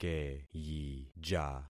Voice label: "keyija", concat syllables; Affixing experiment